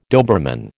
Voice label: "Doberman", American